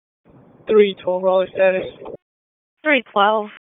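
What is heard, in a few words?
Raleigh Police Northeast District Dispatch, p25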